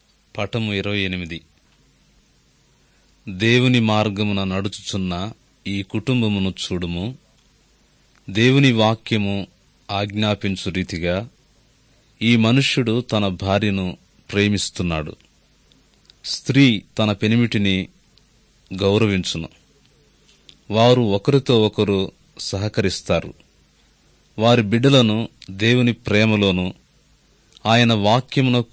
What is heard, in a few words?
good news telugu